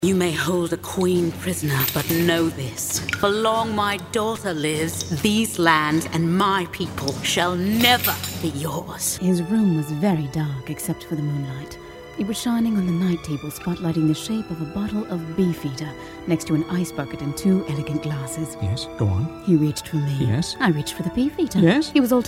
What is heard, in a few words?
BRITISH